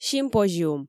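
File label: "симпозиум", Komi, female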